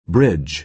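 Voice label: "bridge", American English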